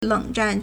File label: "leng3zhan4", Chinese